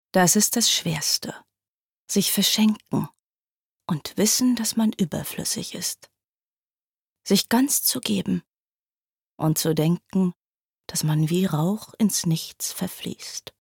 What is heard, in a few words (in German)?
gedicht tragik; LOFT VOICES